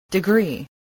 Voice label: "degree", English

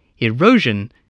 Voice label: "erosion", voice, english

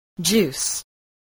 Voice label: "juice", English

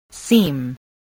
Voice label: "seam", english spelling

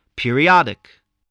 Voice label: "periodic", English, voice

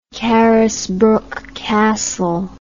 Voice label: "carisbrooke castle", English

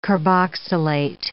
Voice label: "carboxylate", English

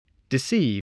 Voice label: "deceive", English

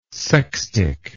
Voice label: "sextic", English

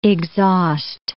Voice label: "exhaust", English

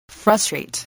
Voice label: "frustrate", English voice, spelling

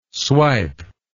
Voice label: "swipe", English

